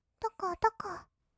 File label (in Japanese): "とことこ", kawaii voice; 1200 kawaii voice!!; 色々なワンショット